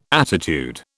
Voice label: "attitude", British